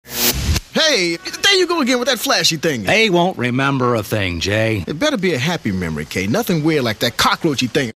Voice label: attitude